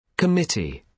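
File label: "committee", British English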